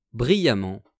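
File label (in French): "brillamment", French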